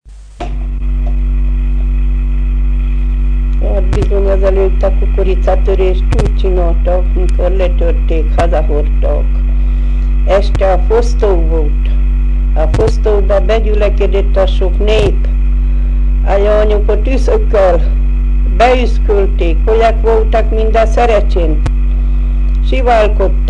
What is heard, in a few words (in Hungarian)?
nyelvjaras gyujtesek